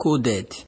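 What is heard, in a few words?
"coded", Nigerian Pidgin, male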